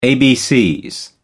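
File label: "abcs", British English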